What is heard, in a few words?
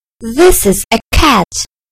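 "this is a cat", British English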